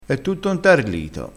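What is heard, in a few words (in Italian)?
"ntarlito", dialettale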